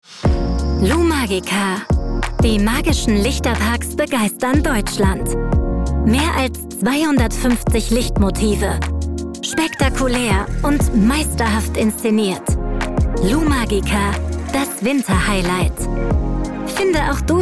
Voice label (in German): Werbung; Lumagica